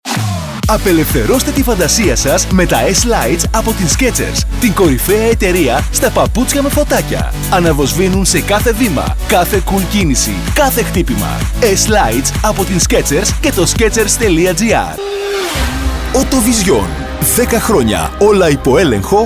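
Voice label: Greek, male, commercial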